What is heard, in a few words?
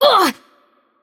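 Attack Heavy, Russian; bloom minigame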